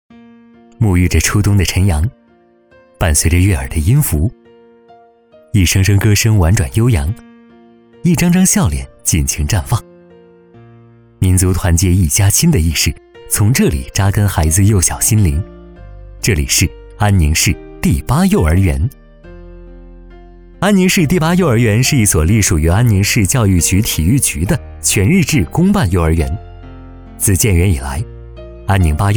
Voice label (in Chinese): 男, 宣传片 幼儿园宣传片; 安宁第八幼儿园